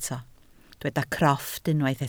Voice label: "crofft", welsh